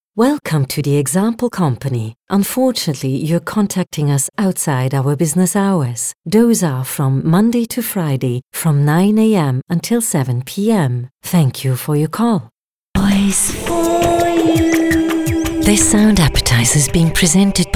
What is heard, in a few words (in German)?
Telefonansage neutral EN